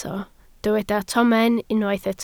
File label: "tomen", Welsh